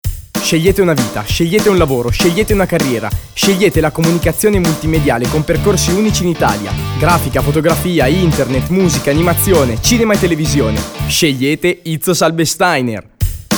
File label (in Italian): spot radiofonico; itsos albe steiner; radioitsos